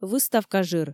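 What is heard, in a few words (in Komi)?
"выставка жыр", Komi, female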